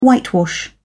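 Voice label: "whitewash", British English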